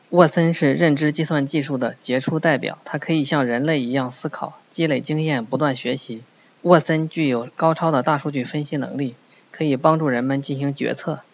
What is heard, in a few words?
zh CN sample 16k; watson stt; bluemix